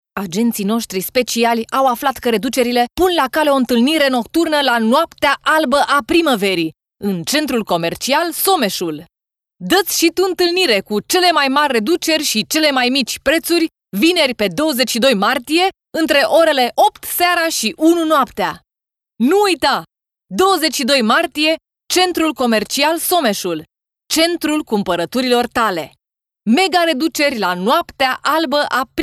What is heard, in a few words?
ro commercial